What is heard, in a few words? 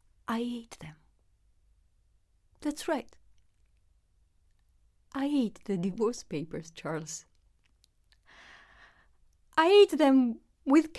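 english sample, voice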